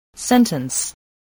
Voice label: "sentence", english spelling

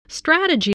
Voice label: "strategy", spelling

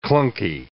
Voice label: "clunky", English

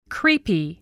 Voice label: "creepy", spelling

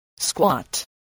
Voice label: "squat", voice

